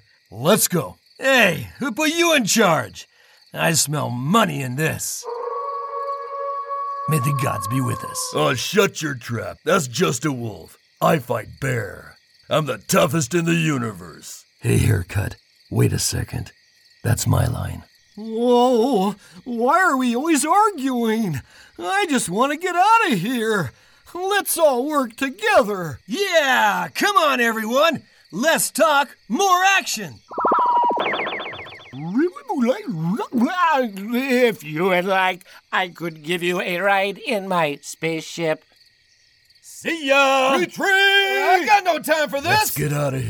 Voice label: characters spooky; キャラクター

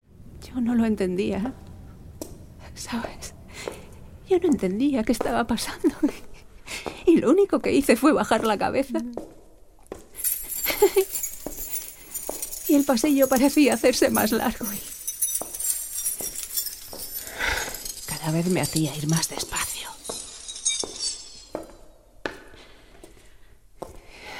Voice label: AUDIO DRAMA

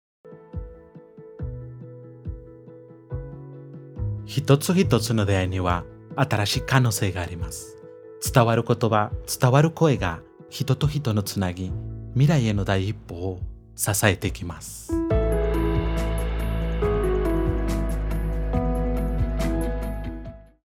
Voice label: Japanese

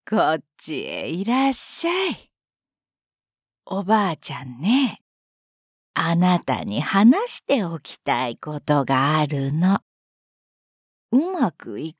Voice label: Grandmother Voice

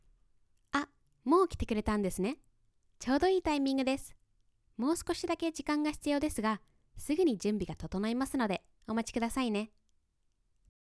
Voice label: Female Japanese VO

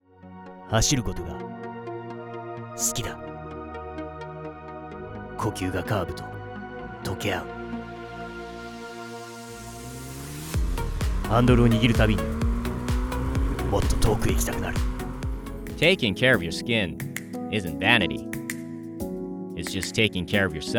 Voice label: Bilingual Commercials Separate EN JA Reads

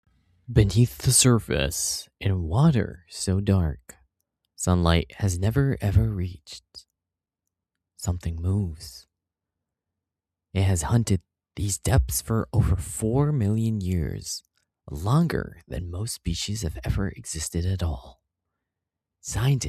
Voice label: documentary ocean

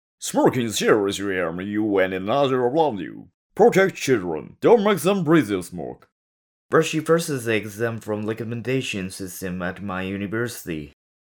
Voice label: English

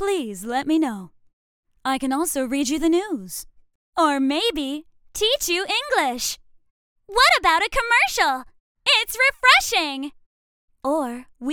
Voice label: Narration Short; ナレーションサンプル; ゼンレスゾーンゼロ; アリス・タイムフィールド(英語版)

